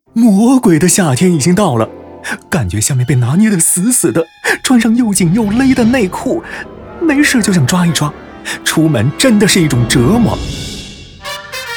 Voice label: 样音诙谐搞笑风格

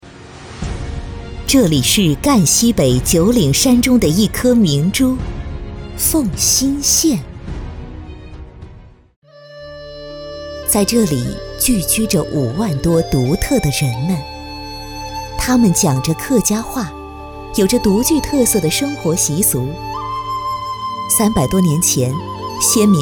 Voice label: 文旅纪录片

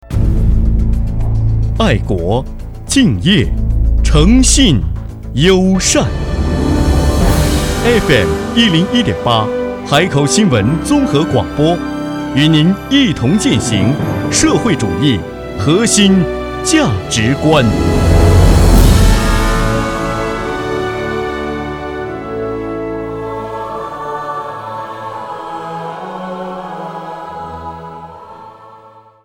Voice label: 宣传

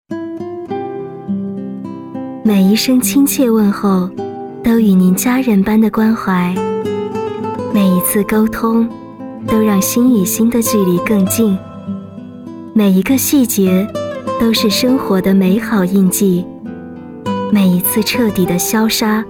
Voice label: 旁白温柔

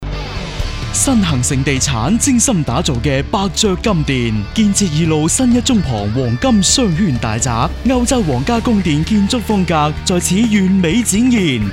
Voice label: 楼盘广告; 伯爵金殿

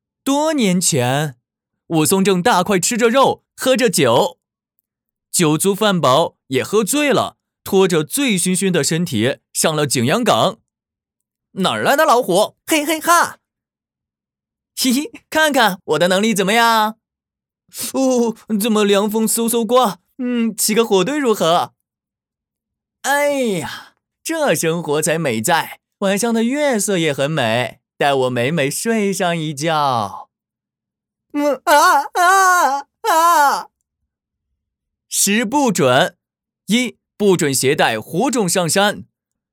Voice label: 俏皮可爱少年音

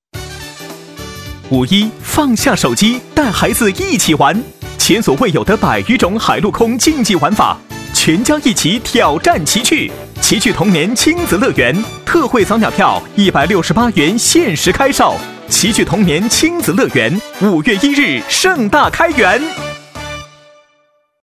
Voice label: 广告 亲子乐园; 奇趣童年

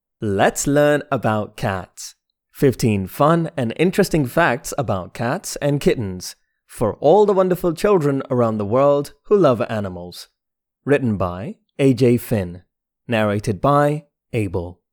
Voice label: 儿童教程

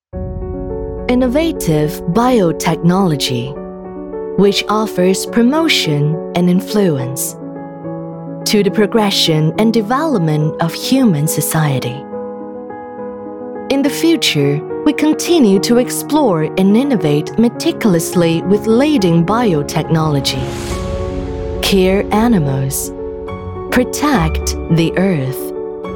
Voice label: 英新生物公司宣传女王

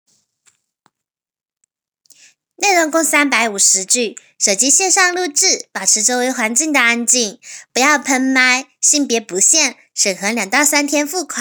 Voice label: 台湾腔

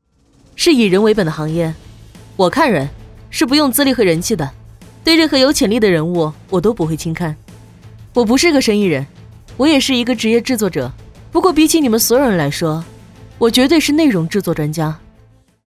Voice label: 高端商人

